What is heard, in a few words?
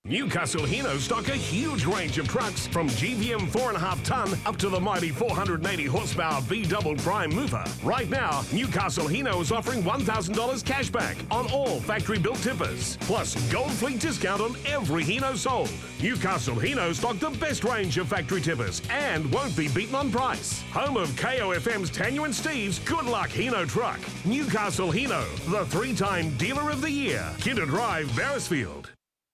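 retail hard sell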